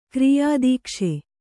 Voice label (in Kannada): "ಕಾರ್ಯದಕ್ಷ", kannada